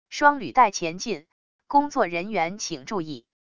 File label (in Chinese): 语音, 语音报警器